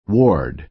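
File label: "ward", American English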